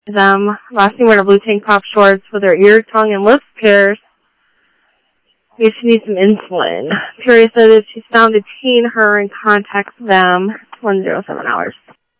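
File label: police department, scanner audio; PHOENIX PD-200 A; ThompsonPeakHigh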